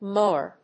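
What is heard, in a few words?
"moar", English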